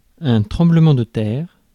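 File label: "tremblement terre", French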